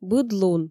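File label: Komi, female; быд лун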